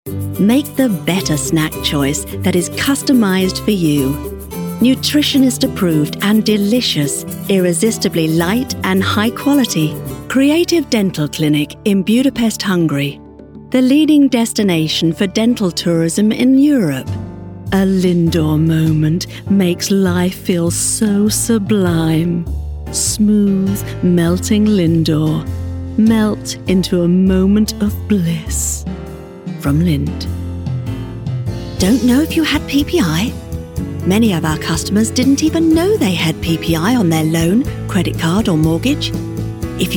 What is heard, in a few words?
Commercial Voice Reel